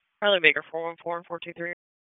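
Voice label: Police Dispatch, p25; wake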